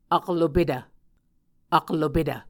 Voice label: "aklopetv", Muscogee